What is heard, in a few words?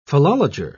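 "philologer", British English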